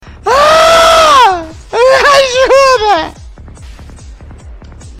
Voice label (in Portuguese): arrrghhme ajudaaaaa